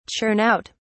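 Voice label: "churn out", British English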